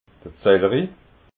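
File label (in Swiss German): "zellri", Alsatian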